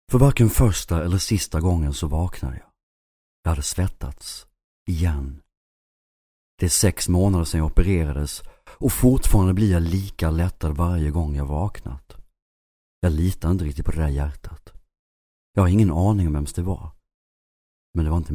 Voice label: Isvecce Erkek; seslendirme

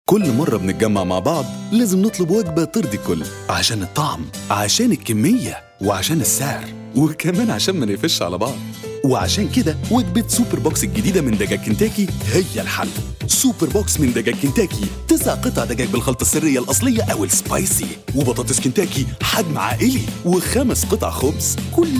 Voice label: Arapca Erkek Misir Arapcasi; misir arapcasi seslendirme; erkek sesler

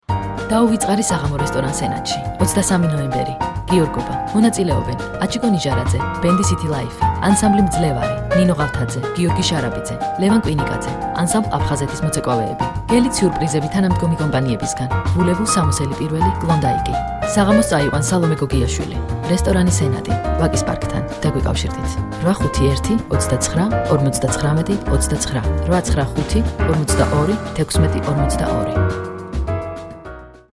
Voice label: Gurcuce Kadin

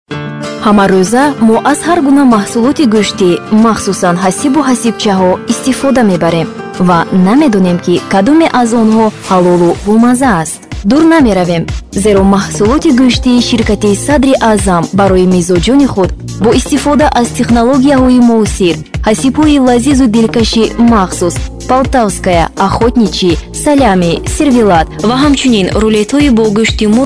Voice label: Tacikce Kadin, seslendirme; kadin sesler

